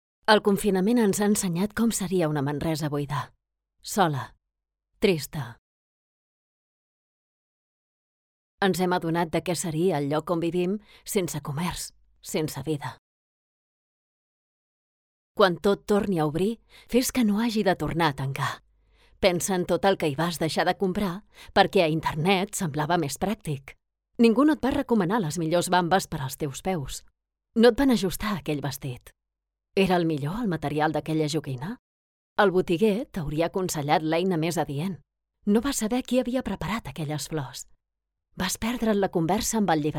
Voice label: catalan promos

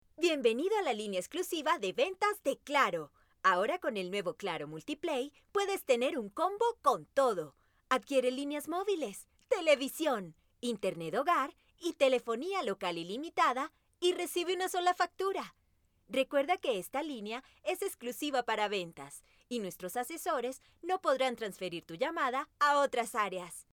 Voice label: spanish colombian promos

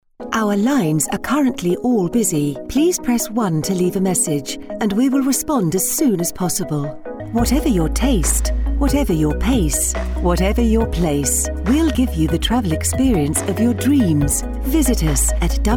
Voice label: english british ivr

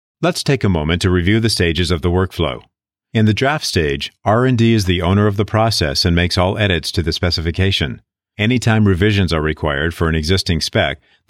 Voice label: english american e-learning

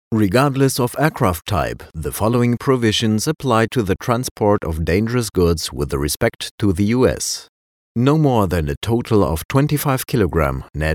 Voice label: english explainer videos; professioneller zweisprachiger Sprecher

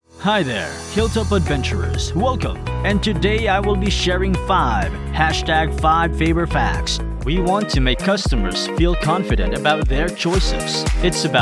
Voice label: english promos

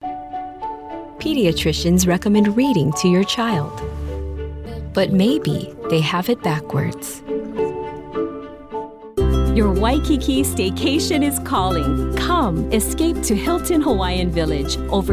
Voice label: english american commercial; Inglés (Estados Unidos)

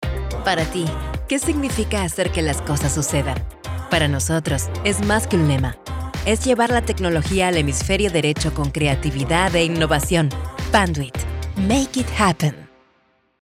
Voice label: spanish latin american corporate videos; Buenos Aires, Argentina

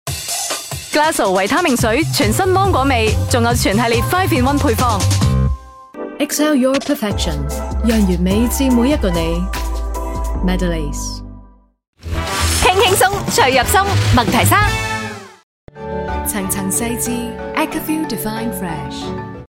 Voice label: chinese cantonese promos

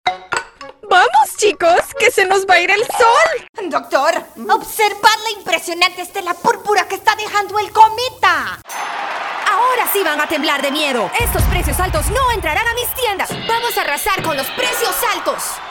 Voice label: spanish latin american animation

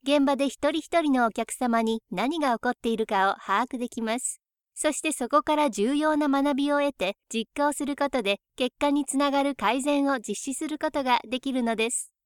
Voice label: japanese corporate videos; animated/high-pitched for anime

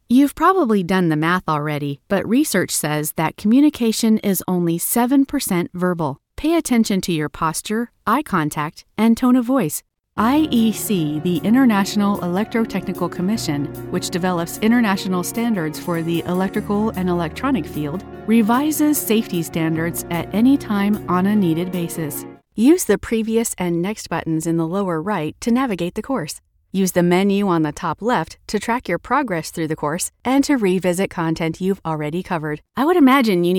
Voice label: english american e learning

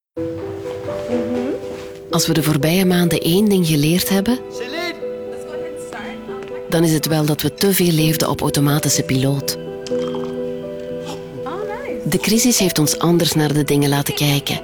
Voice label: flemish narration

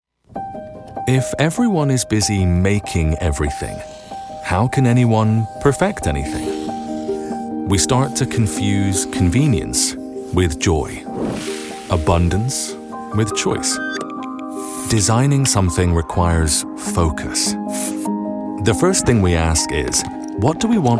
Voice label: english international explainer videos

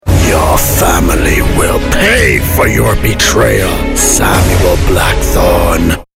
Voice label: english international video games

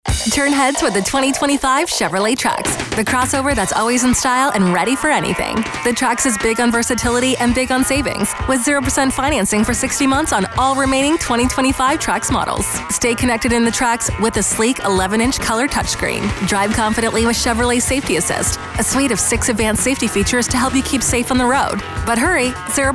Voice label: english canadian automotive

